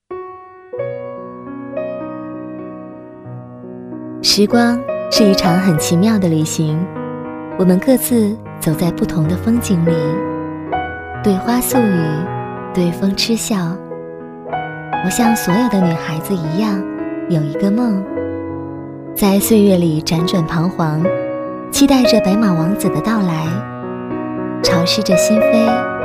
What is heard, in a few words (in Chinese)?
微电影, 女; 沙画